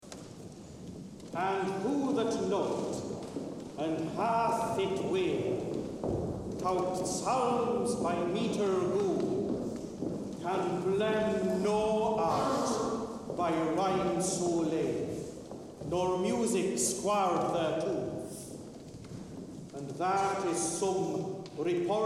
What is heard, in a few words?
Narration; On Metre